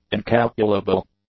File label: "incalculable", English; adjectives